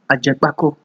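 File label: "ajekpako", Nigerian Pidgin, male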